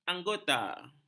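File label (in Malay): "anggota", Malay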